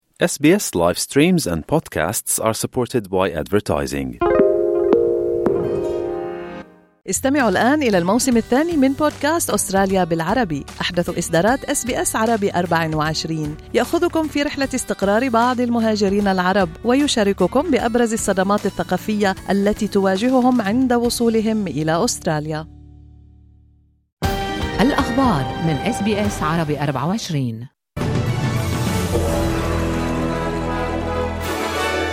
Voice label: arabic, newsflash